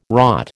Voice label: "rot", American